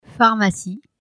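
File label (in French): "pharmacie", French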